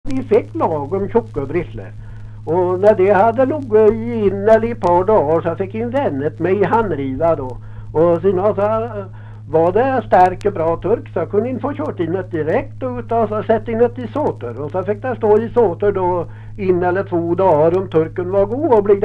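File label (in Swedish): Lerdal Dalsland, dialekter; Folkminnen; Svenska sagor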